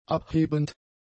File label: "abhebend", German; adjectives